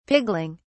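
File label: "pigling", British English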